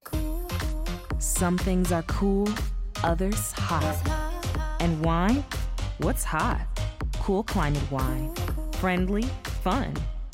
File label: Radio